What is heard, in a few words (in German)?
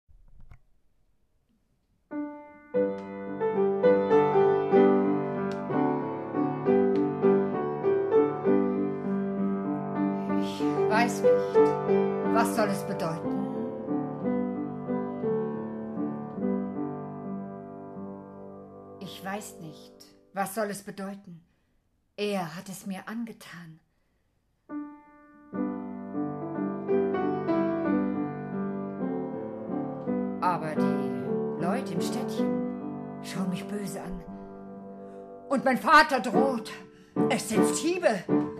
lesung; zunge